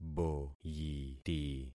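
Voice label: "boyiti", concatenated syllables; Affixing experiment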